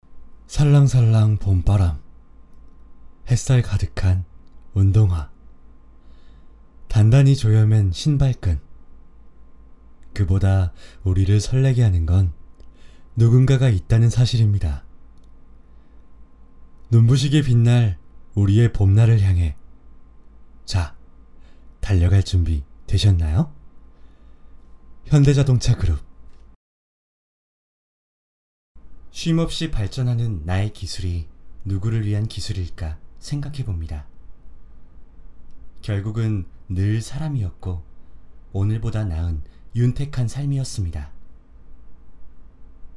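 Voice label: Korean male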